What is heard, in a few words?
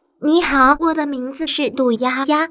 female